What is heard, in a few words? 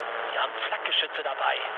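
German damaged aa mood low, speech; War Thunder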